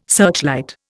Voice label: "searchlight", Indian